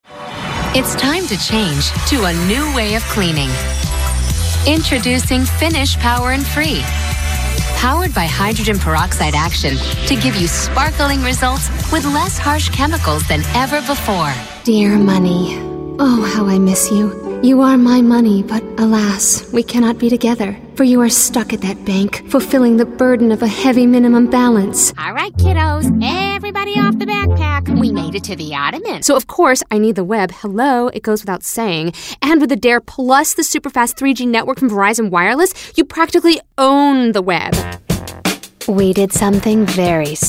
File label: commercial, commercial women